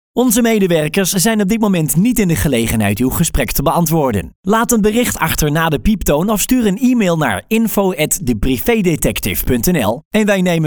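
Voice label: male Dutch; holland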